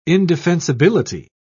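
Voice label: "indefensibility", British English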